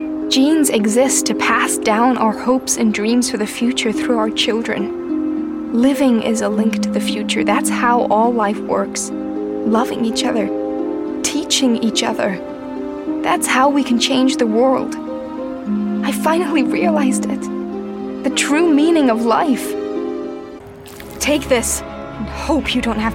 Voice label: American Accents